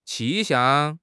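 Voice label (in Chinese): "气象", Chinese; HSK; HSK6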